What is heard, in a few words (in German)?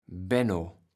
"Benno", platt